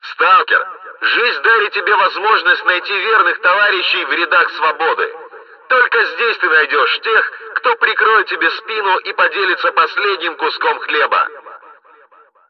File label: megaphone